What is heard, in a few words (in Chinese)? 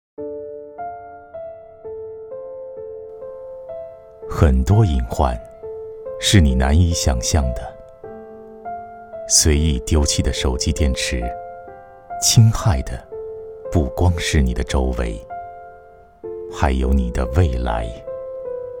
广告 绿箱子环保计划, 国语男声配音, 温情; 温情人物专题